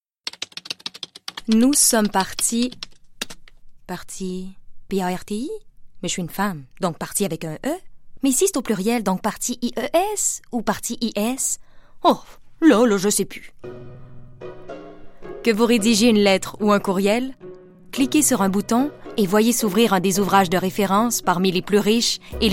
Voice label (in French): Démo voix publicité; Antidote